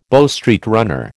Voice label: "Bow Street runner", American